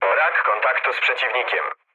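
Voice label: Polish chat; War Thunder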